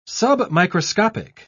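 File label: "submicroscopic", British English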